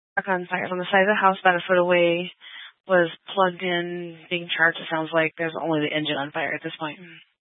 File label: Grand Junction Fire Department Dispatch